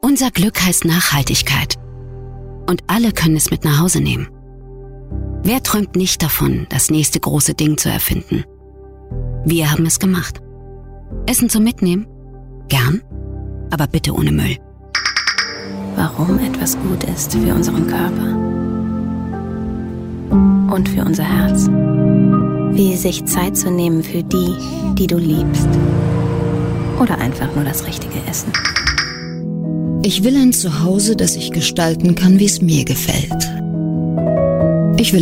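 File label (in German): Sprecherin für Imagefilm; voice-over vertonung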